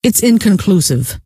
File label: "inconclusive", scientist female